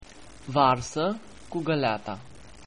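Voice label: rain, Romanian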